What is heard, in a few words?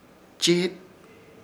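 "Chiit", Chamacoco word, alumbrar; Ishir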